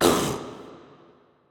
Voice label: VO evil take damage; arcane